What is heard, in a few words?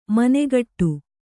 "ಮನಗೂಡು", kannada